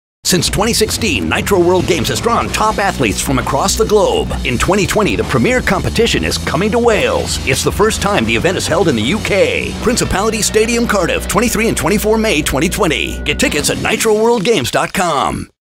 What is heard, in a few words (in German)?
TV Werbespots Online Werbespots Breites Publikum; NATIVE PROFISPRECHER